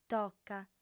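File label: "toca", female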